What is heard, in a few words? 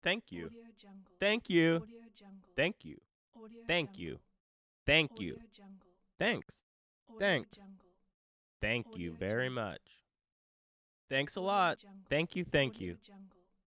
male thank you pack